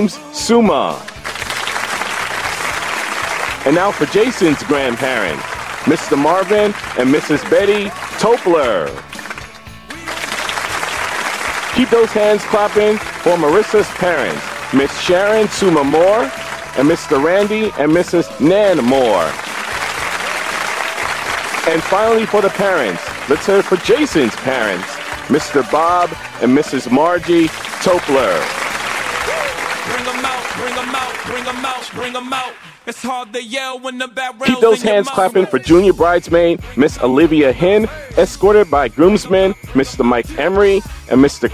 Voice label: voiceover music wedding introductions